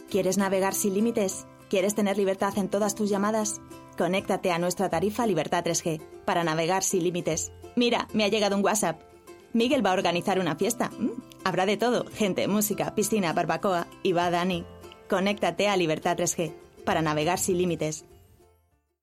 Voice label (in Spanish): locuciones voice over; Vodafone